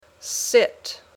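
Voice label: "sit", English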